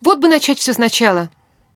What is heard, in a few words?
idle, woman